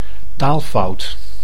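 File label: "taalfout", Dutch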